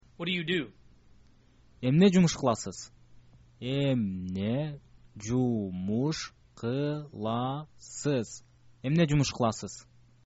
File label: what do you do, kyrgyz